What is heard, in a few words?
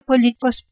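"politos", Proto-Indo-European; eastern origins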